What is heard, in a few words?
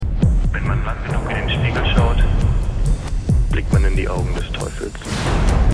german